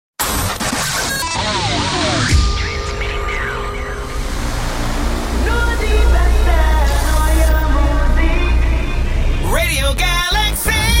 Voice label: radio id